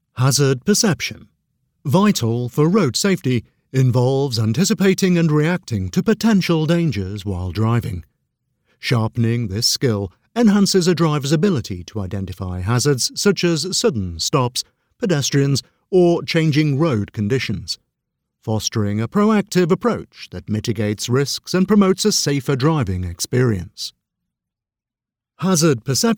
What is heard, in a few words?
english british explainer videos